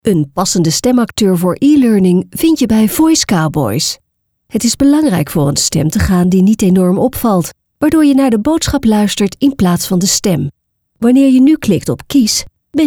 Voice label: ELEARNING

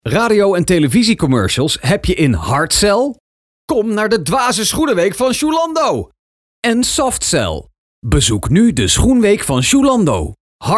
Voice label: RADIO TV

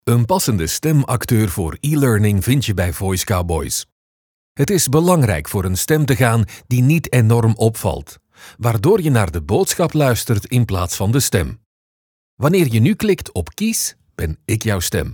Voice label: ELEARNING

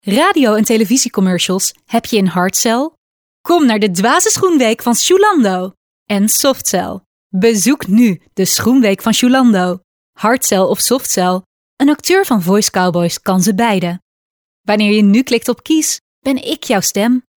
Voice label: RTV COMMERCIALS

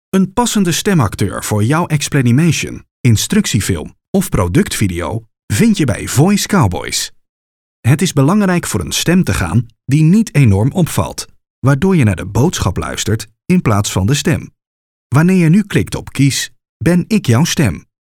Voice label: Explanimation

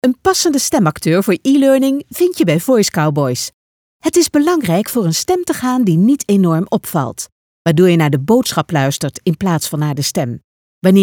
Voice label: ELEARNING

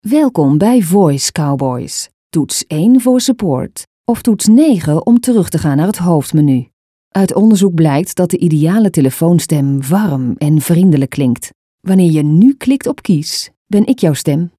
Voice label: IVR

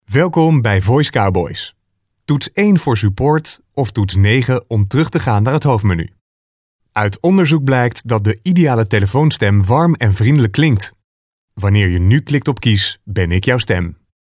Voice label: Voicemail

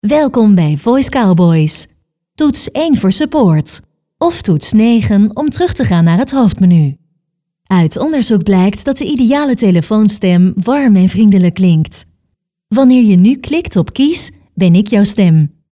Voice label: voicemail; voicecowboys

